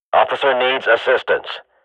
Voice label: radio bot under fire, swat; Counter Strike Model Pack; CS:GO